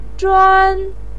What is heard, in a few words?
"zhuān", voice pinyin